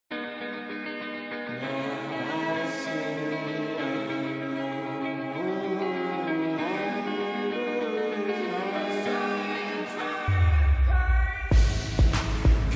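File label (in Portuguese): Aniversário Evangélico Nora Masculino, telemensagem; telemensagem evangelica aniversario genro nora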